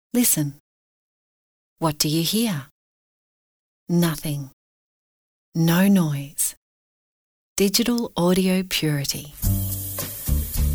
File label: Voice Over